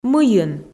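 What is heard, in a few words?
"мыйын", Komi, female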